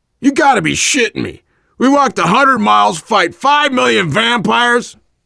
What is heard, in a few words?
world farmhouse, voice, biker; Left 4 Dead 2; survivor